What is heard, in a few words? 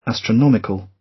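"astronomical", British English; Time, history; Thời gian, lịch sử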